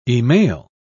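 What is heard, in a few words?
"e-mail", English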